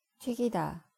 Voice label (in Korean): "튀기다", Korean; Korean words about cooking